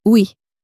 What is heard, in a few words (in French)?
"oui", French; flashcards